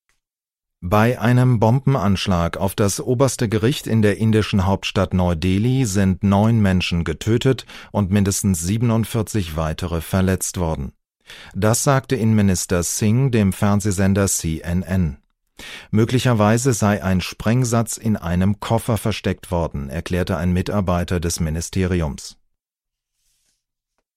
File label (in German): nachrichten